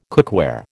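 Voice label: "cookware", American English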